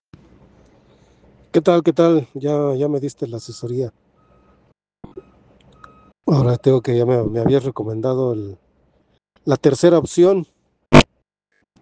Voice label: whatsapp ptt